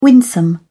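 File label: "winsome", British English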